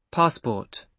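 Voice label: "passport", British English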